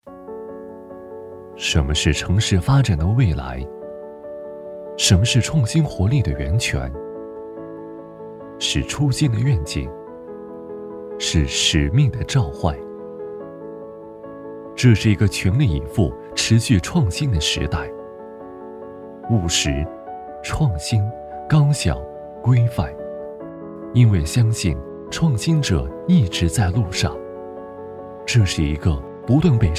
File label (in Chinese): 城市旁白